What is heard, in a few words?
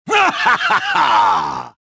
gloat; reborn